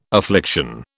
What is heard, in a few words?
"affliction", British